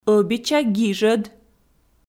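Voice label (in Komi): ӧбича гижӧд, female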